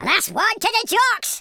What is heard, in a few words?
first blood, Scotsman; speech